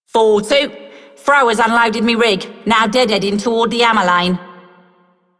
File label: Female Radio, Female One, en; Dialog; Warframe; Solaris Venus